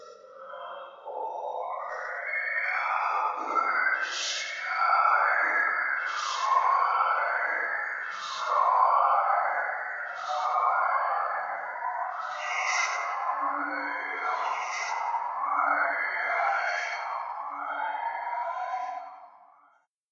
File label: Creepy Whispering